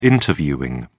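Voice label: "interviewing", British English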